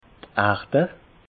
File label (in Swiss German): "erda", alsace